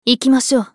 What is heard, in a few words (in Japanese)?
スカウト女 移動